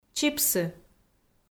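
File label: "чипсы", Komi, female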